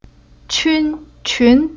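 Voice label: "Qun", Chinese syllable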